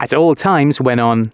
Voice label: announcements, male